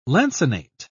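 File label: "lancinate", British English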